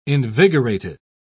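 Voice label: "invigorated", British English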